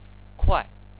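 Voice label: "kuai4", Chinese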